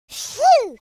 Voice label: relief, cowgirl speech

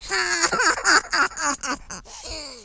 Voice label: loseloop, French Lover; speech

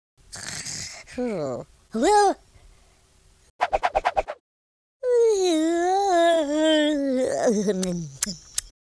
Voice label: idle, Blues Man speech

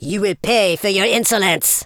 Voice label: traitor, Super Villain, speech

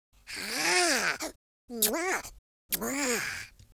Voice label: happy, speech; Confucious

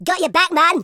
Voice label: revenge, Madchester

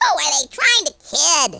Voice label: boring; speech

